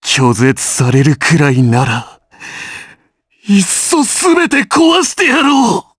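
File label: vox dialogue, voices, Japanese; Shakmeh; kingsraid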